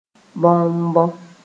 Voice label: "bõmbõ", moore

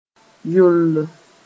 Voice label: "yolll", moore; watery

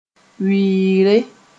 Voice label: "wɩɩre", moore

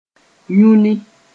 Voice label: "yũni", moore

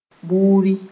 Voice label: "buri", moore

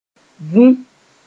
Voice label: "vũ", moore; snore

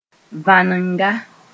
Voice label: "vã-nenga", moore

